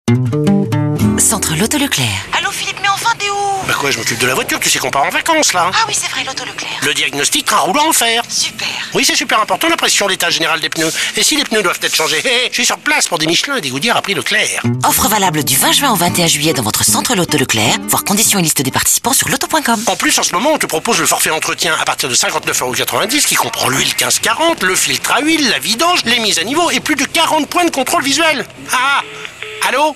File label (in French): spot, spots radio